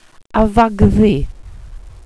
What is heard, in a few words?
"afahddu", welsh pronouciations; Wales/welsh names